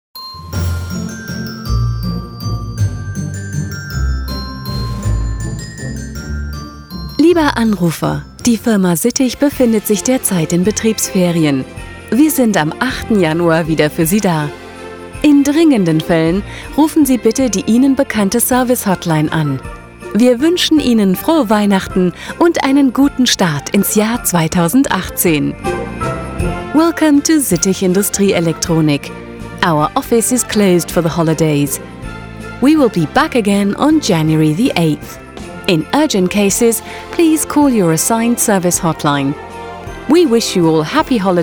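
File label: German English, telephony; Sittig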